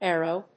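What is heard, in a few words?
"erro", English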